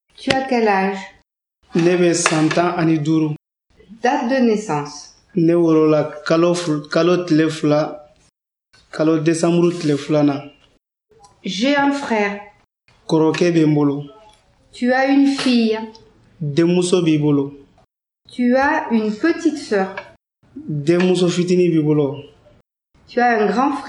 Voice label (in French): QUELQUES PHRASES EN BAMBARA